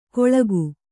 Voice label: "ಕೊಳಕು", kannada

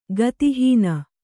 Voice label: "ಗತಿಹೀನೆ", Kannada

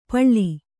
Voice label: "ಪಳ್ಳಿ", Kannada

